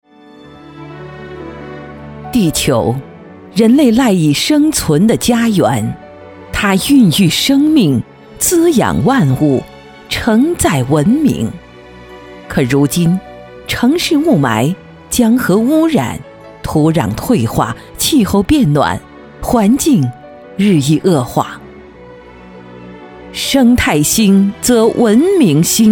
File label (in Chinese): 专题 学校 职业学院, 女; 资阳环境科技职业学院